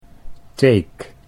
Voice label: "teek", Dutch